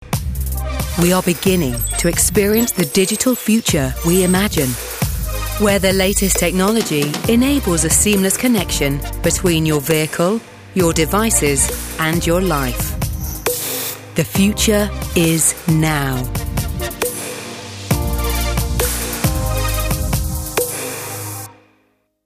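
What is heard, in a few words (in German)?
UK English, Freundlich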